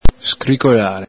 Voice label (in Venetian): "scricolare", Veneto; scricoƚàre; vb.intr.; creak; scricchiolare; el Galepin